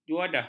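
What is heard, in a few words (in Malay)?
"juadah", Malay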